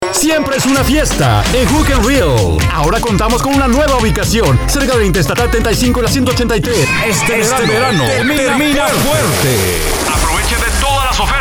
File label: Male